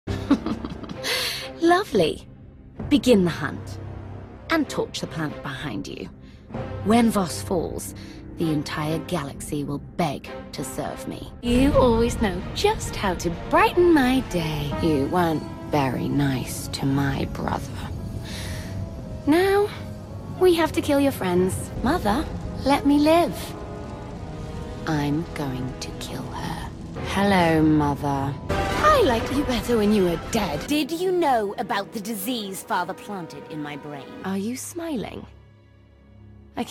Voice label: British Accent Animation Character; Star Wars videogame